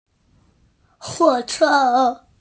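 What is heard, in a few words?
"huo3che1", Chinese, female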